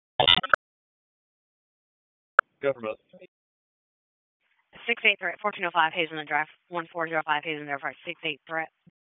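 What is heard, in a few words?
Police Southeast District Dispatch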